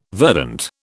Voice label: "verdant", British